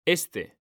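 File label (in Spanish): "este", Spanish; mekanta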